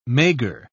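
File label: "maigre", British English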